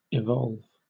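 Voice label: "evolve", British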